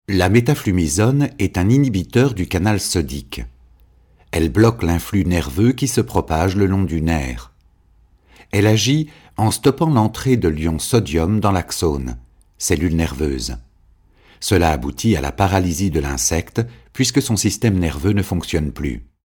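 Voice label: French medical narration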